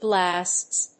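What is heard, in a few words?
"blasts", English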